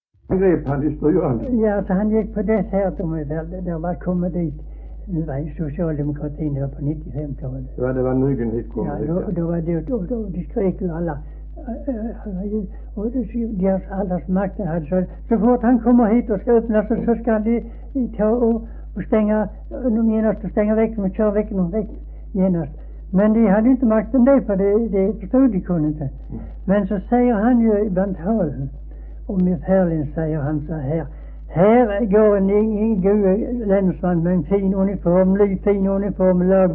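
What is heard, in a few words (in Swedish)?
Höör Skåne, svenska sagor dialekter; Folkminnen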